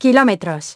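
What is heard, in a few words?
"kilometers", English; iOS 4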